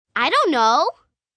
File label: Response, 1 girl, 9 yrs.; Talking Sound Effects; IDontKnow2; VoiceBox; Twistedtracks